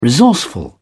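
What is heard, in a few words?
"resourceful", British English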